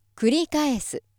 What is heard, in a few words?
female